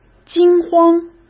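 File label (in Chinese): "惊慌", Mandarin Chinese; chinese-flashcards; hsk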